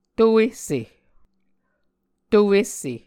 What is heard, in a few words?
"to esse", Muscogee